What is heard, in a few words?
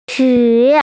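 "qi3", Mandarin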